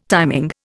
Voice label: "timing", Indian